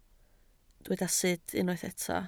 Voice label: "sut", Welsh